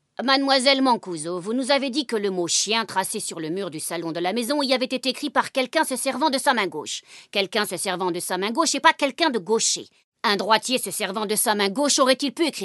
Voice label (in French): Doublage